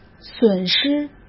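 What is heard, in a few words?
"损失", Mandarin Chinese; chinese-flashcards; hsk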